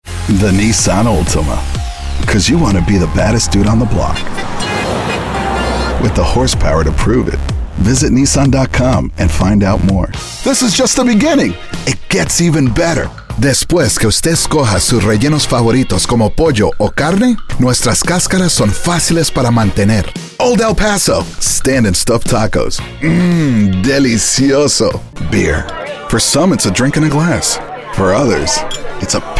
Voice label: English

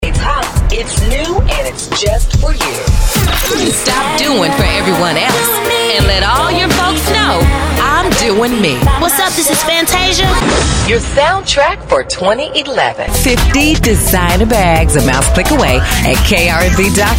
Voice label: Imaging

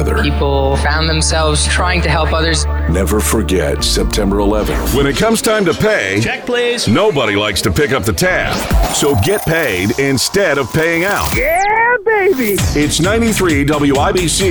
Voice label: news talk

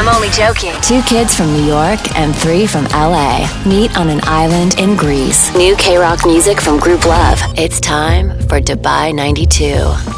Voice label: Radio Imaging

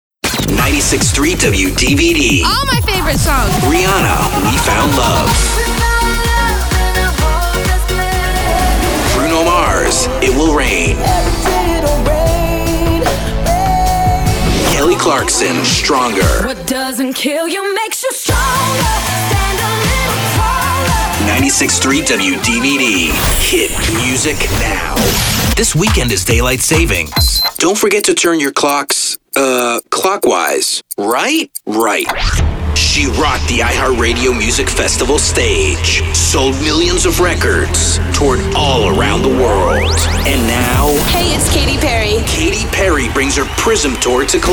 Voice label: VO Hot AC

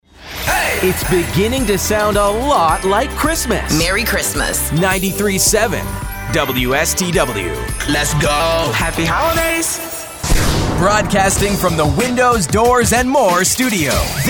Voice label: AC Imaging, voiceover

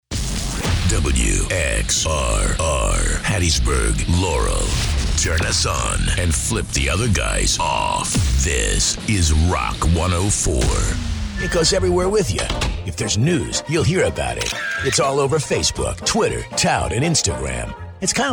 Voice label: imaging

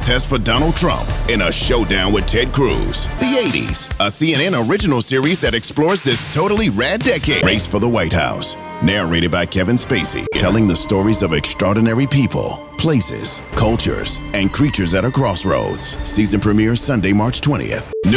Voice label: NEWS

